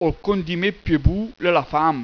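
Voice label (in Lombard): Ol cöndimet piö bù, l'è la fam., bergamasco; PROVERBI BERGAMASCHI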